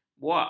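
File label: "buak", Malay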